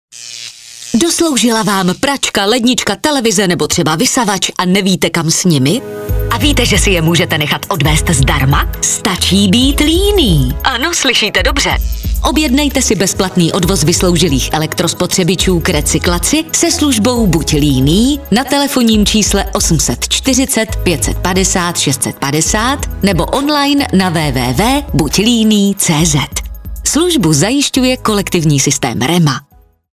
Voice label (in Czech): spot; REMAsystem